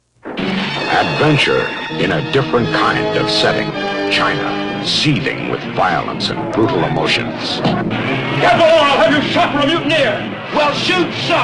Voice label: radio ads